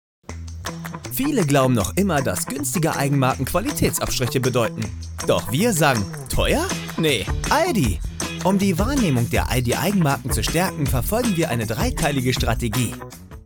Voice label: Praesentation Werbung, Presentation, Commercial (Werbung), German; ALDI Präsentation